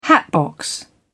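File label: "hatbox", British English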